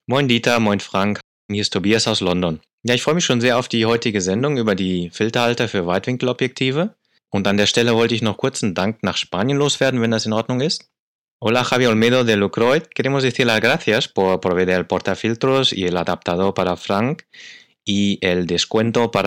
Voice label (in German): Sprachnachricht; fotophonie 049 filtern wie die profis